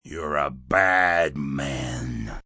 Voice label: Youre A Bad Man, Attitude, male: 'You're a bad man', (human voice speaking); Talking; Voice Box